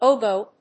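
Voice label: "oboe", English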